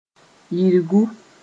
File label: "yirgu", Mooré